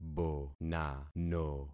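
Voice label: "bonano", concatenated syllables; Affixing experiment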